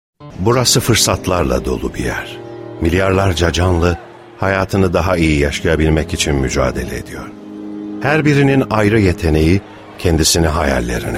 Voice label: reklam dramatik; lcw

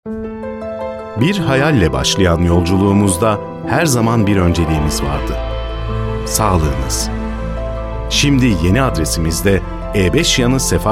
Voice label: reklam kurumsal; biruni

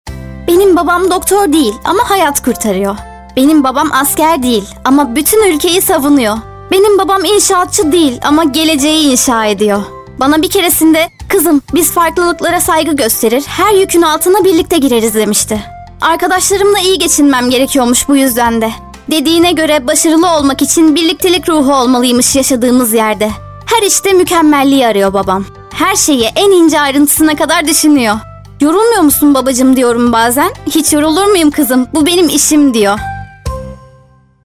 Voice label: anlatici

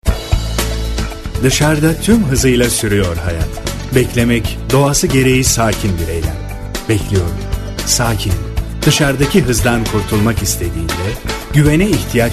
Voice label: reklam neseli; otomol

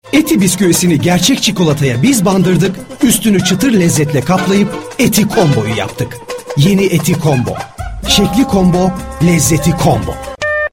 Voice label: reklam neseli; eti

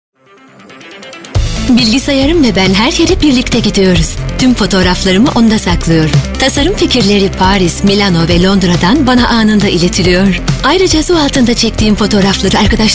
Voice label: reklam

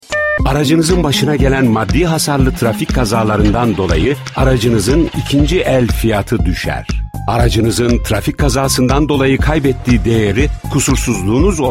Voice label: reklam enerjik; ats

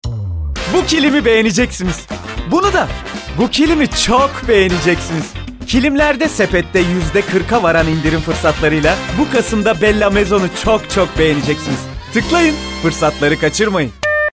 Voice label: reklam neseli dinamik; bella maison

